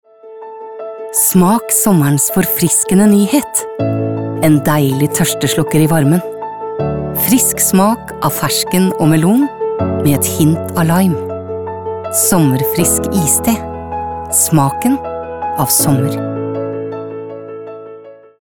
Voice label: no softsell